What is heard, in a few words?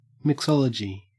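"mixology", Australian English; pronunciations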